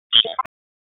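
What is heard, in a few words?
Raleigh Police Special Operations Tactical, p25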